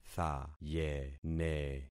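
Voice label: "thayene", concatenated syllables; Affixing experiment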